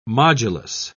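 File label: "modulus", British English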